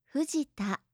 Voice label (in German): "fujita", japanische maedchennamen